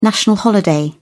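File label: "national holiday", British English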